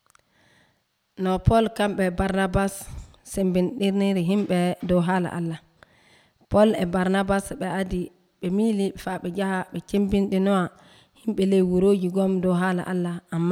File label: western niger fulfulde, drama; fulfulde adamawa; bible stories; help l'esprit guide paul; abc nt drama